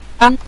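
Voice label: "dan", Mandarin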